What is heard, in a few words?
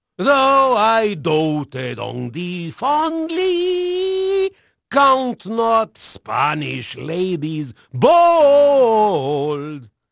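Spanish male, voice; Pirates Of The Caribbean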